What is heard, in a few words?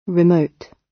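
"remote", British English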